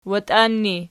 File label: watian erg, archi